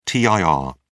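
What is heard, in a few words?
"tir", British English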